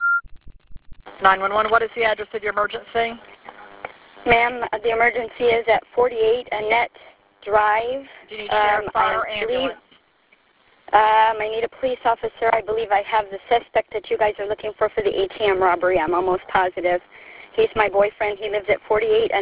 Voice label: 911 CALL